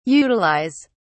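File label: "utilise", British English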